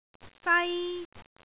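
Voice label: "sai1", Cantonese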